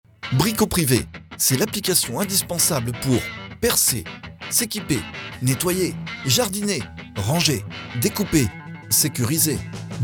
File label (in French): Cover Publicite; Démo Publicité